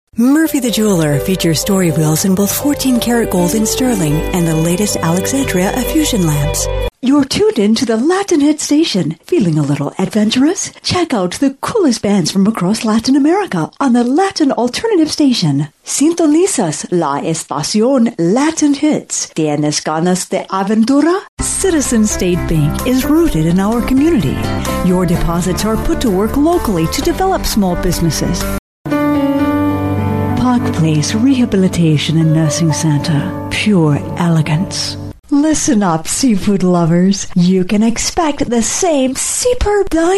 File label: Commercials